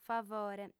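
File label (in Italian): "favore", lingua sarda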